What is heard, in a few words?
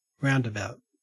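"roundabout", Australian English; pronunciations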